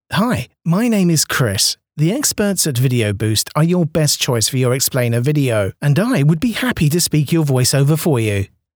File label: British English, voiceover; internationale voiceover